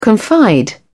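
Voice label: "confide", British English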